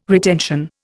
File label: "retention", Indian